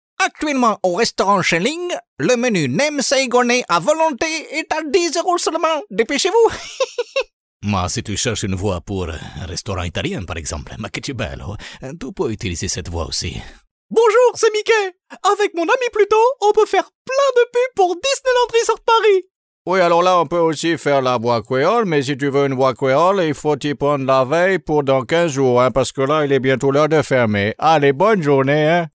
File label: male french voice over talent character; france